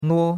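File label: "nuo1" Mandarin Chinese syllable, first tone; Cours de Chinois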